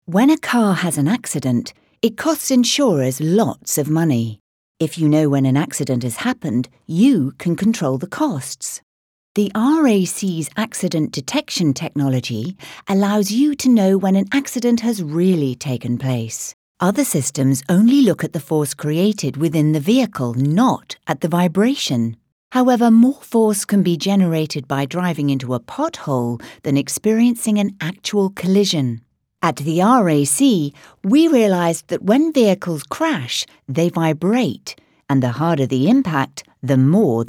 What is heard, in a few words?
Voice over